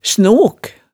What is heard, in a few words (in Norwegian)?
"snok", hemsedalsmaalet, tale